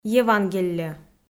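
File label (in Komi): "евангелльӧ", Komi, female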